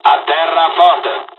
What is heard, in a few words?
land, dialogs italian; War Thunder